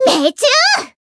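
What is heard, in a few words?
Voice finish, japanese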